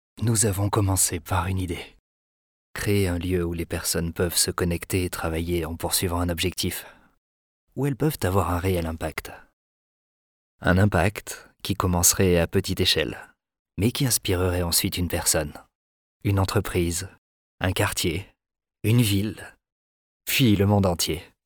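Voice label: French, male, corporate emotion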